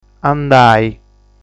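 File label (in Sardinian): "Andai", Sardinian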